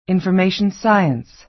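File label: "information science", American English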